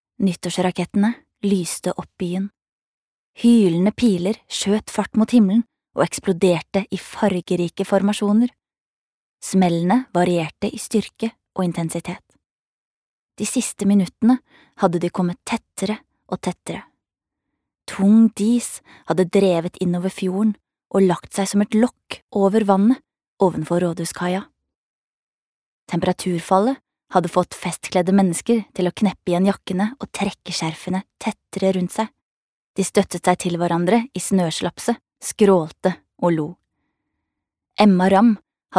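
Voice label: Norwegian audiobook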